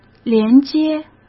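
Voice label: "连接", Mandarin Chinese; hsk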